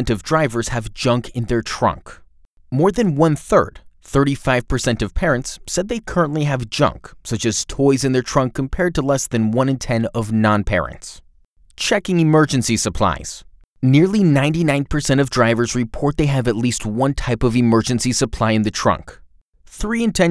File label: Car Travel Voice Over